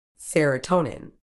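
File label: "serotonin", British English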